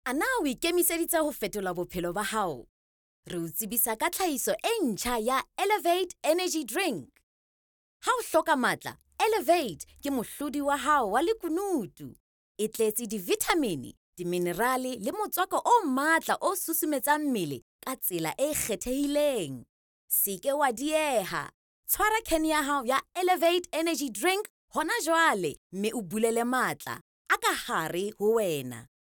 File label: female sesotho voice over upbeat